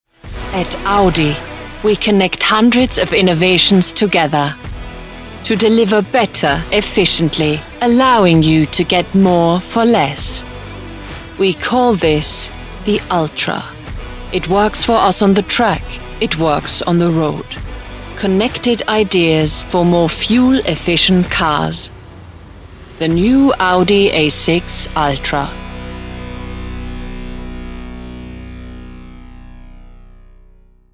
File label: female austrian and german english with german accent commercial; audi